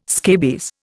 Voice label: "scabies", Indian